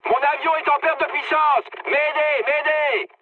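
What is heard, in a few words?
damaged engine, mood high, French; War Thunder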